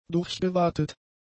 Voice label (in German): "durchgewatet", German; adjectives